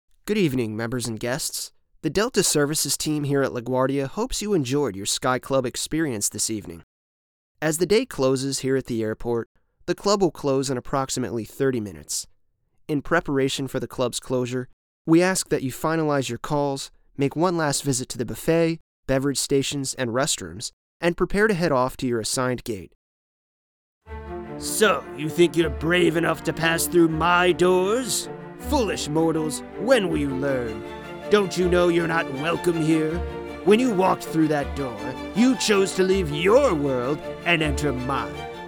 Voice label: Live Announcements